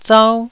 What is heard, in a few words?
"zau1", Taiwanese